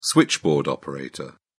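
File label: "switchboard operator", British English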